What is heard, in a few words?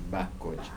"they thank", Kibembe